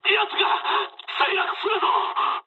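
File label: aircraft destroyed mood med, speech japanese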